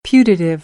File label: "putative", American English